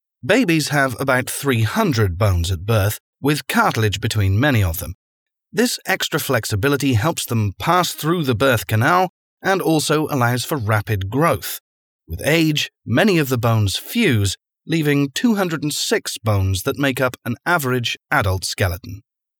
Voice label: english british e learning